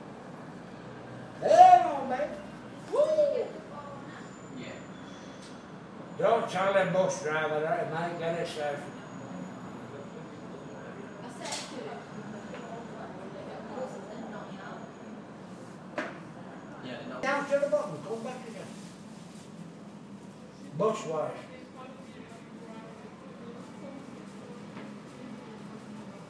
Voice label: loony at bus station

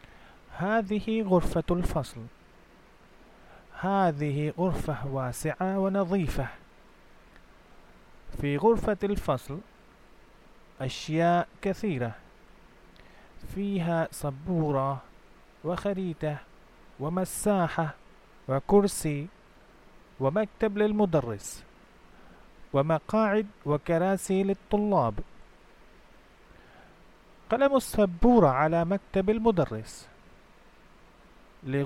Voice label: arabic basic listening practice

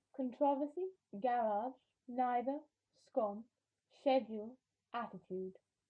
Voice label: chichester and peterborough uk female

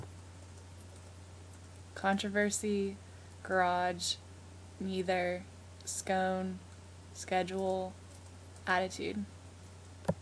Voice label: nashville tennessee female

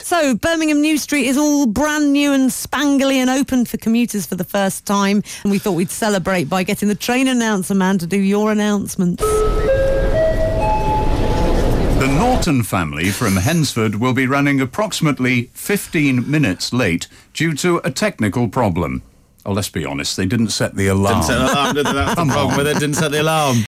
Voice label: train announcer man on monday morning

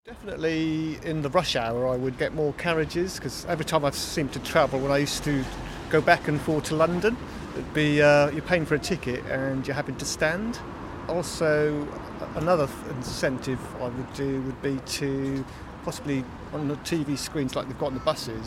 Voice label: vox pop; southern rail

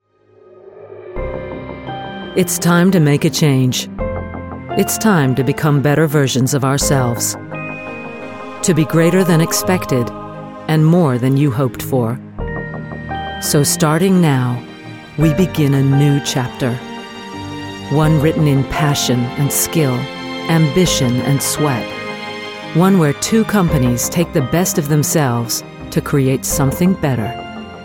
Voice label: advert; american airlines

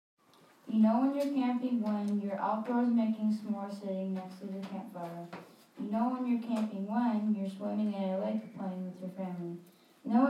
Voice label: 5th grade poetry

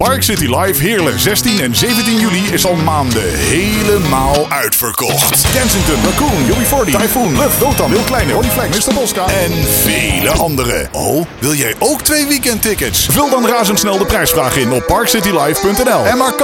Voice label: radio commercial; Park City Live

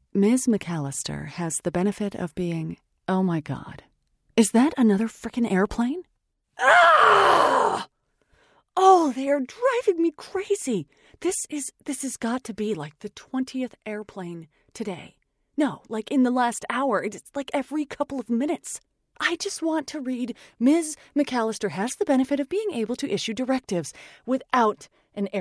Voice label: a narrator s tantrum

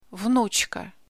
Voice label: grandchild female in russian

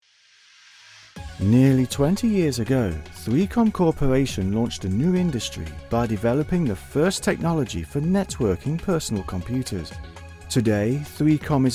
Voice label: corporate narration sample

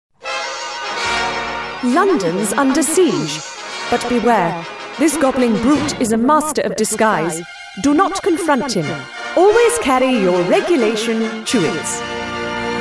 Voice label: character voices

